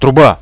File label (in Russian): "Truba", Russian, radio